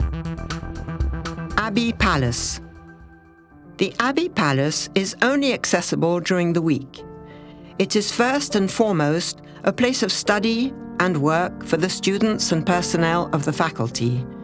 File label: EN Audioguide; Gembloux; Palais Abbatial